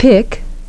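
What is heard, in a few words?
"pick", English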